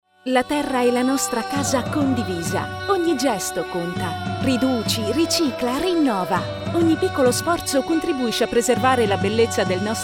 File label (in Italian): Motivazionale